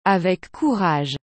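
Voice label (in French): "avec courage", French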